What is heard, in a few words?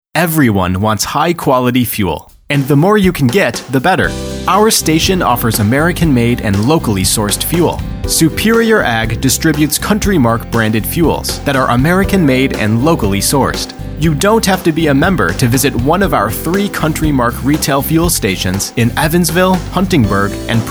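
Spot with music; CountryMark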